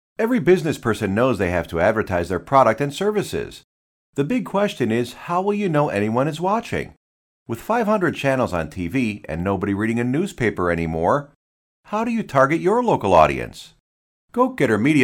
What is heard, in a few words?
USA